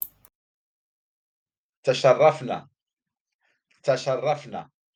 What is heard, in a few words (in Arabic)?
"تَشَرَّفنا", Arabic; we are honored; introductory greetings